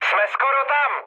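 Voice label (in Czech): Czech near waypoint, mood medium; War Thunder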